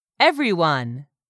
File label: "EVERYONE", english; 3000 words